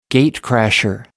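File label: "gate crasher", British English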